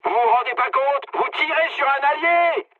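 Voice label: player friendly fire, mood high, French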